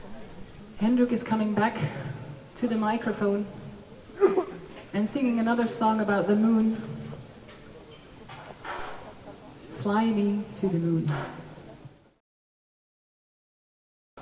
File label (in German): Ansage